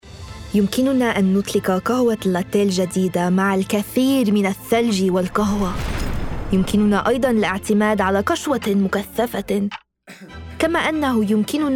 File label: commercial reel Arabic classic